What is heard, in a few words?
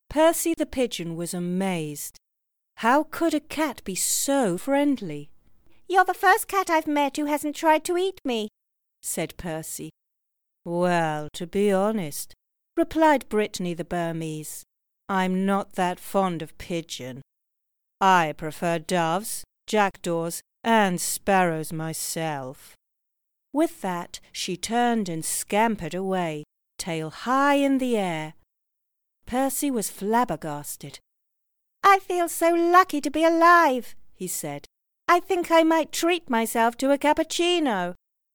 Childrens Audiobook; Promotional